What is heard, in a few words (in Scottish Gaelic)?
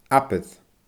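"abair", Scottish Gaelic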